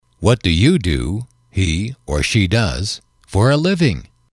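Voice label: what do you do he she does, male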